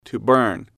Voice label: "to Burn", English